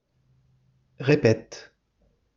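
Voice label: "répète", French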